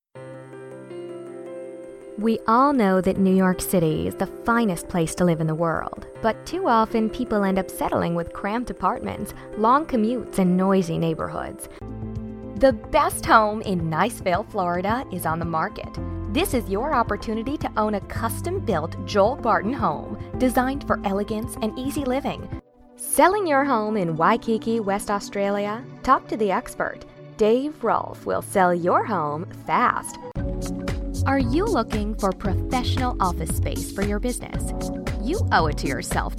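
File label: English USA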